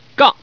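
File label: "gang", Chinese, male; 男; 欢乐麻将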